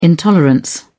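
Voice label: "intolerance", British English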